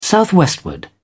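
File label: "southwestward", English, British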